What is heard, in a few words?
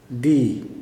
"say", Kuman